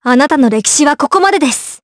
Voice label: Vox Skill jp; Leo; kingsraid

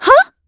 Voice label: Vox, en; kingsraid

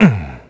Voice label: Deny, kr; Riheet; kingsraid

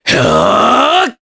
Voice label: Casting, jp; Kasel; kingsraid

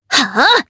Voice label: Vox Casting jp; Sonia; kingsraid audio

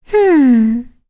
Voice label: Vox Deny, en; Kirze; kingsraid; voices heroes en

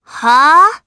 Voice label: Vox Deny, jp; Viska; kingsraid

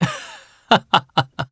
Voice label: Vox Happy jp; voices; heroes; Roman; kings raid

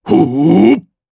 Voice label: Vox Casting jp; Bernheim; kingsraid

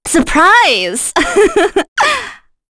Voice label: Vox Skill, en; kingsraid; voices heroes

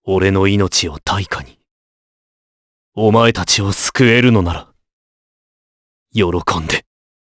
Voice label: vox get, jp; voices heroes jp; Clause; kingsraid

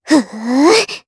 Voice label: Casting, jp; Annette; kingsraid

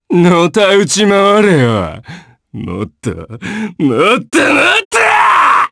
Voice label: Vox Skill jp; Ezekiel; kingsraid

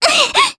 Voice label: Vox Damage jp; Mediana; kingsraid; voices heroes

